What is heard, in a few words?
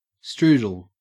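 "strudel", Australian English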